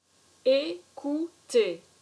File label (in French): "Ecouter", french